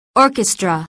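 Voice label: "orchestra", English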